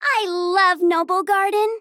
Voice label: Female Gnome HAPPY